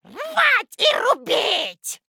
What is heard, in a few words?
Female Gnome Attack; Hearthstone; датамайн обновления 5.0